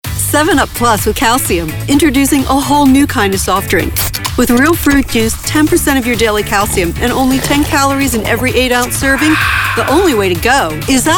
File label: Commercial